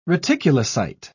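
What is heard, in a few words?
"reticulocyte", English, British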